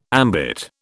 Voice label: "ambit", British